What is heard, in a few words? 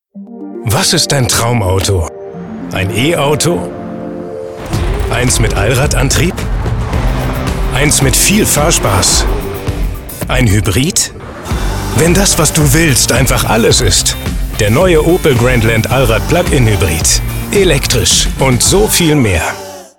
TVC; Opel Grandland; Traumauto